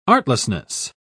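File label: "artlessness", English, British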